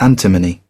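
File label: "antimony", British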